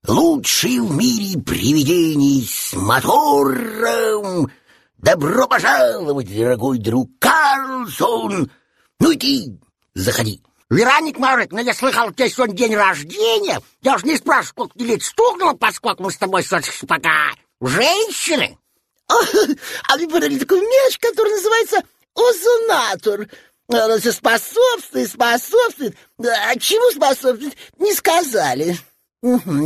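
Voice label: Parody Russian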